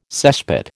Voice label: "cesspit", American

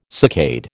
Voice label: "saccade", American

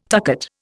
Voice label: "tucket", Indian

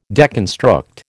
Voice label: "deconstruct", American

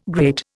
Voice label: "great", Indian

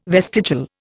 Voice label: "vestigial", Indian

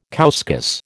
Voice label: "couscous", American

